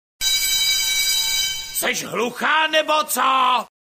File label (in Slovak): sms hlásky cz